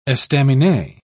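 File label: "estaminet", British English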